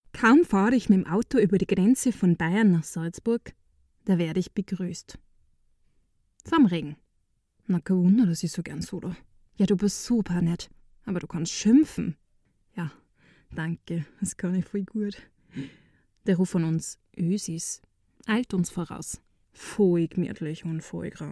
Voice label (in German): Audiobook Austrian German